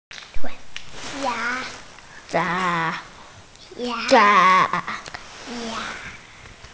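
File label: "ya2", Mandarin Chinese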